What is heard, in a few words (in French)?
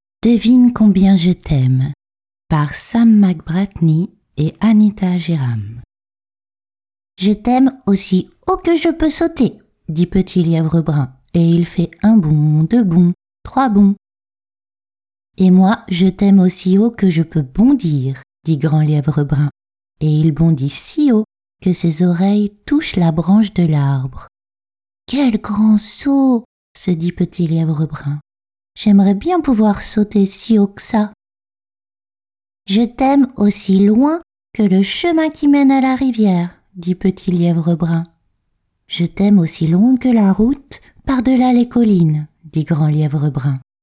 lecture audio album jeunesse; demo voix narration audio